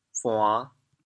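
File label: "buan5", Teochew, chaoyang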